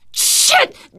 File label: horde attack, teen girl, survivor voice; source engine; left 4 dead 2